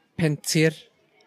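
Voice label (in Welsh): "Pentir", Welsh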